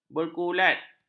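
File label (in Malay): "berkulat", Malay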